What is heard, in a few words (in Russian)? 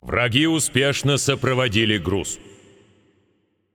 Match Payload Enemy Successful, Russian